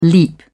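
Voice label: "leap", English, British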